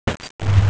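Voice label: "huo3che1", Mandarin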